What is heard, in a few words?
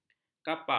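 "kapak", Malay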